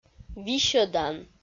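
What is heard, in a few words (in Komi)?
"висьӧдан", Komi, female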